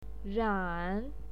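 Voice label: "ran3", Mandarin Chinese pinyin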